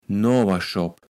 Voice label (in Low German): "Noaberschopp", Platt